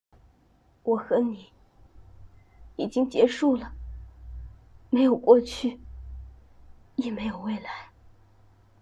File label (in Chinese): 日常戏感练习悲伤